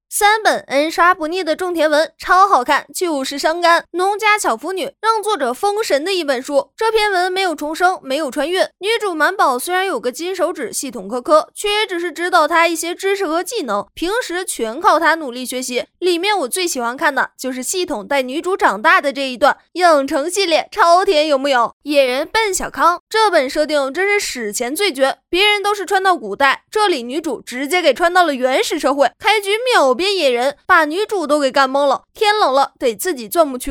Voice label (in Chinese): 活泼解说